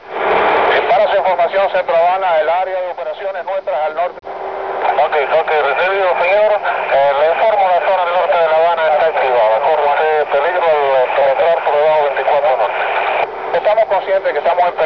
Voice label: spanish radio; cuba shootdown